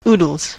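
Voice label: "oodles", US English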